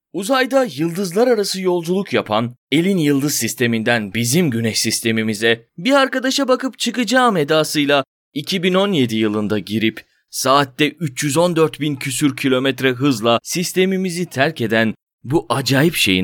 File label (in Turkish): yıldızlar arası yolculuk belgesel